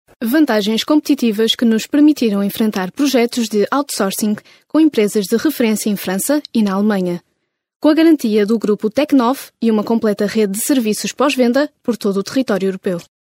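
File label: portuguese female narration; voice demos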